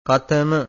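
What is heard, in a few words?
"katama", Pali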